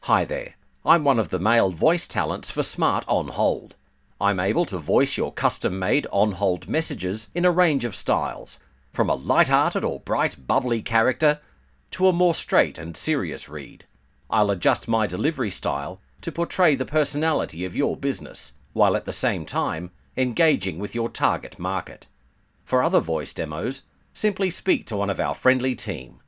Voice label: MALE